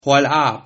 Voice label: stop, Sylt Frisian